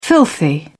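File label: "filthy", British English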